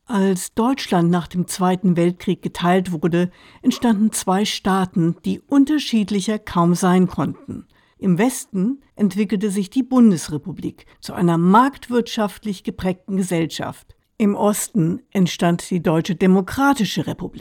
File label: non fiction documentary German